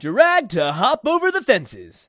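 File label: announcer riding tutorial; Nick Summer Sports Stars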